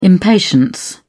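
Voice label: "impatience", British English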